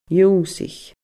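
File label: "ionnsaich", Scottish Gaelic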